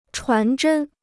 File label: "传真", Chinese